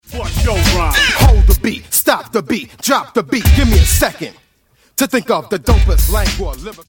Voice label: Vocals ('hold the beat, stop the beat, drop the beat')